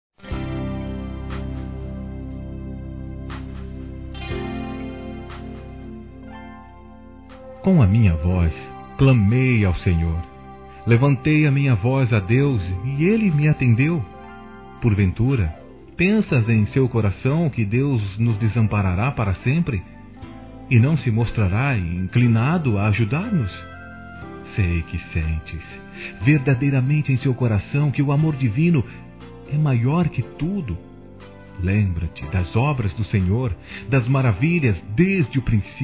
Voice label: Trilha OTIMISMO M, Telemensagens, Gospel, masc

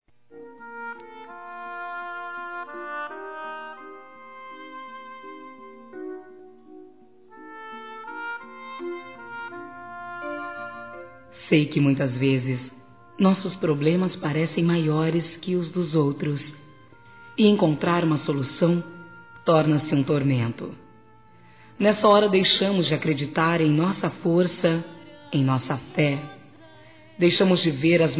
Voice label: Trilha OTIMISMO GERAL, Gospel, fem; Telemensagens; Telemensagens 2017

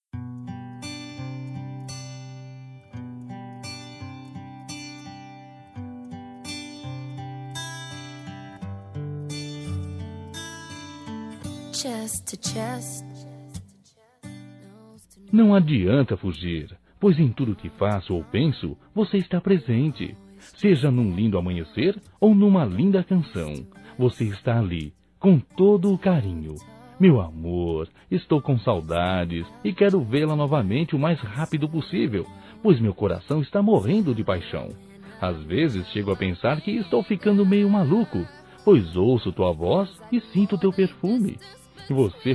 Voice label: Romantica Masculino; Telemensagens 2017; Saudades

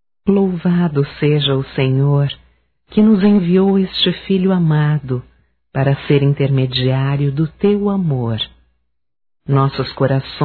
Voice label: Homenagem ao Pastor Feminino, Telemensagens; Homenagens; Telemensagens 2017

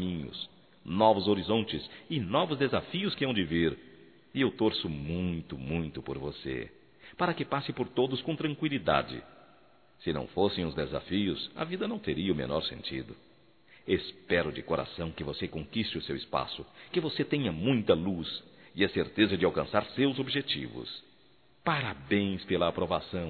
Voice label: VESTIBULAR (M); masc; Telemensagens 2017

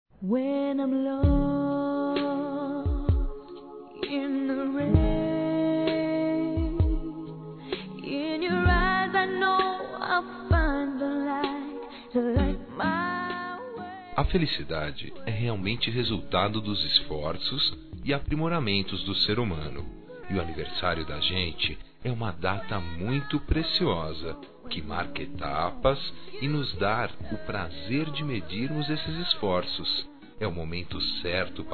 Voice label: NEUTRO, masc; Aniversários; Aniversários diversos

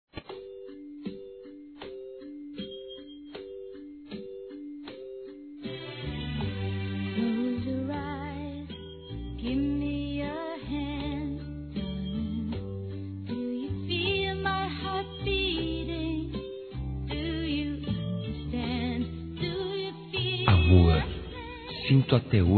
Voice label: DECLARAÇÃO, masc; Telemensagens; Declaração de amor; Telemensagens 2017

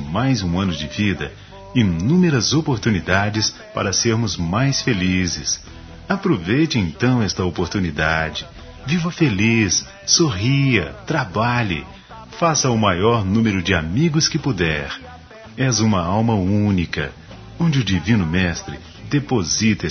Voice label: Aniversário Neutro Evangélico

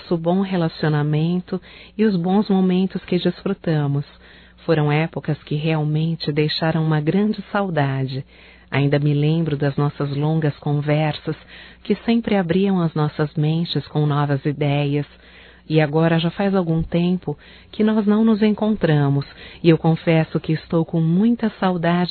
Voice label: SAUDADES AMIGA, fem, Só Voz

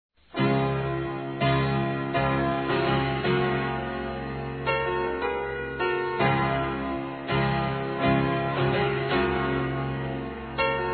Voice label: AGRADEC. AMIZADE, Fem

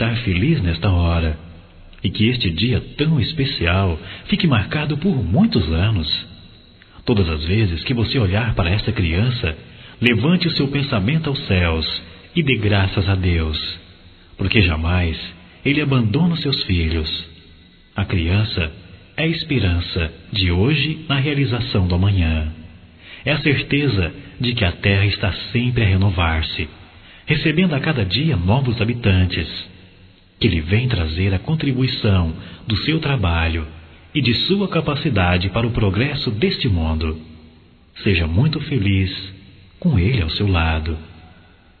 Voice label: Nascimento Bebê Masculino, Só Voz; Telemensagens; Telemensagens 2017

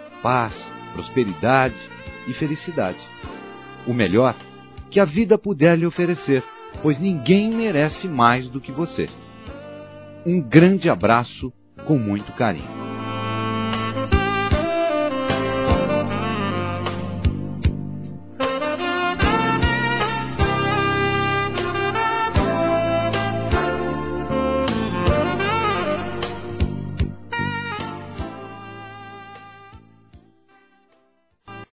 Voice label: DECLARAÇÃO DE AMIZADE, Amizade Masc; Telemensagens

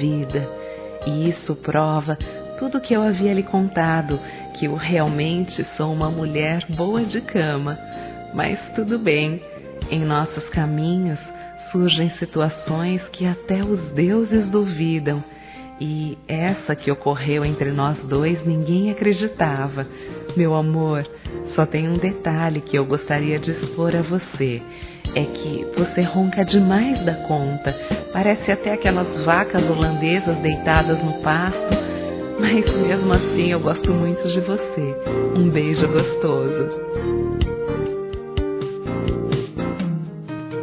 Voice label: NOITE MARAVILHOSA, fem; Telemensagens; Sensuais

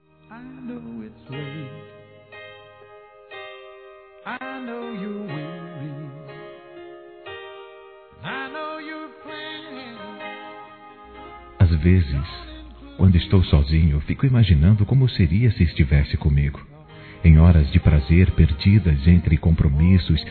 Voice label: ROMANT. PICANTE, Sensuais, masc; Telemensagens

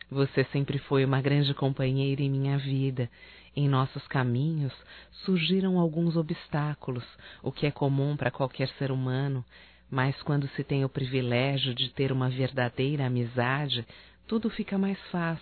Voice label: NATAL AMIGA (F); Natal e ano novo; fem; Telemensagens 2017

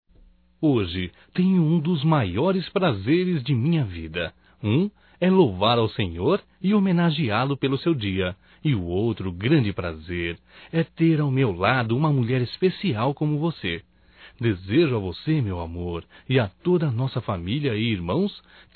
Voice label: Romântico Masculino, Natal; Natal e ano novo; Telemensagens 2017

